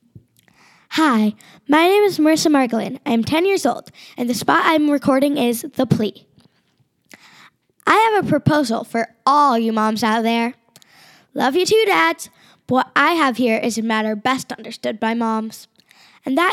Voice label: kids, commercial